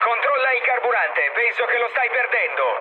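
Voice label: Italian tank damaged mood med, speech; War Thunder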